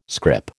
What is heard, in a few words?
"scrip", American